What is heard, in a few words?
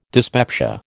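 "dyspepsia", American English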